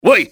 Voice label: "hey", enemy cantonese male; XBOX

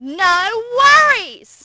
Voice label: worry, Brit; Splashdown; XBOX

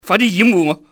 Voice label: cover me, cantonese male; XBOX; enemy

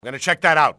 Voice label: im going to check, english male; XBOX; enemy

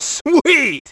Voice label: sweet; Splashdown; XBOX

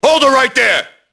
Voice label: police alert; enemy; Max Payne

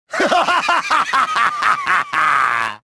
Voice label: gloat; reborn

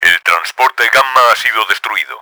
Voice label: gamma down, Spanish; Battle Engine Aquila; XBOX

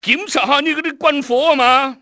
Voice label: check your fire, cantonese male; XBOX; enemy

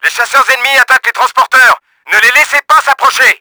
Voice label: INCOMING FIGHTERS, french; Battle Engine Aquila; XBOX

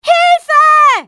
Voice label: help, civ fmale; XBOX

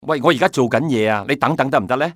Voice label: "i have work", male; XBOX; civ

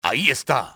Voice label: there he is, spanish soldier; enemy; XBOX

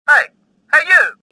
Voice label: suspicious; XBOX; worker

